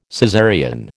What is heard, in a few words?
"Caesarean", American